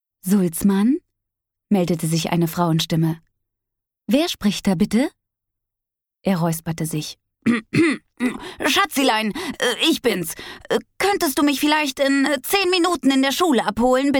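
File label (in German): Hörbuch Zaubermädchen (viele Stimmen); Kinderhörbuch - Die Zaubermädchen (versch. Stimmen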